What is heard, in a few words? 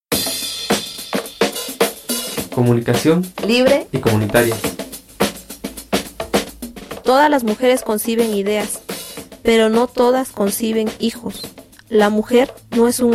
promo